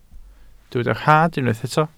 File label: "rhad", Welsh